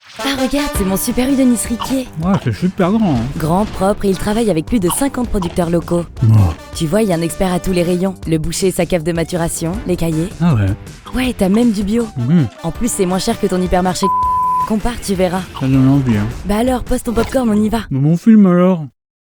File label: Pub TV, Humorous Character (Duet), French; Television Spots; Super U Nice